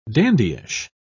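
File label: "dandyish", British English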